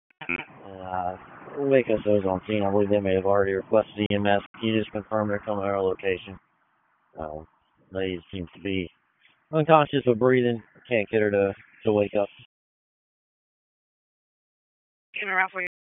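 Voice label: Police Dispatch, p25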